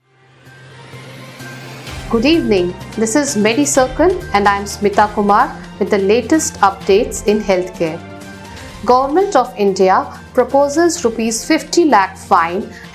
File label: english news